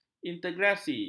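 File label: "integrasi", Malay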